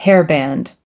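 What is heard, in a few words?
"hairband", US English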